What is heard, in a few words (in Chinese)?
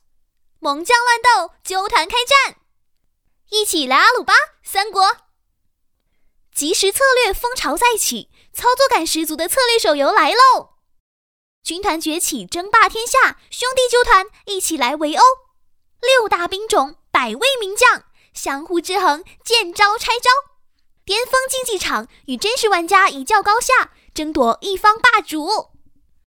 游戏-广告; 霸业